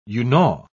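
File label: "unau", British English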